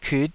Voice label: "kiut5", taiwanese syllables